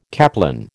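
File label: "caplin", American English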